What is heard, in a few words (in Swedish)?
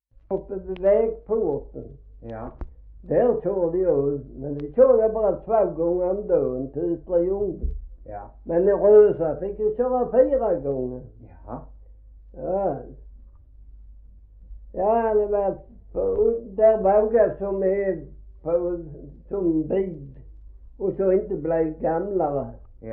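Gråmanstorp Skåne, Svenska sagor dialekter; Folkminnen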